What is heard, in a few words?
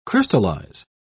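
"crystallise", British English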